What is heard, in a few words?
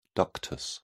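"ductus", British English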